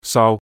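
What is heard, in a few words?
"saau3", Cantonese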